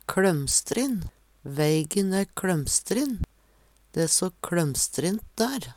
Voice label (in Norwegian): kLømstrin, Numedalsmål; Veggli